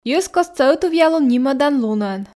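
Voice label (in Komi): "йӧзкостса ӧтувъялун нимӧдан лунӧн", Komi, female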